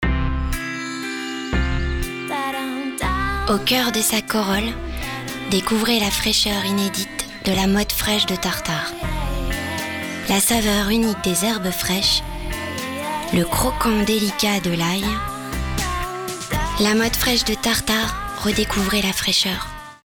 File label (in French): gourmande, VOIX GOURMANDE; VOIX SENSUELLE; GUM